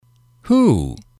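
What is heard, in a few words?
"who", male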